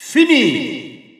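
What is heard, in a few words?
narration game set French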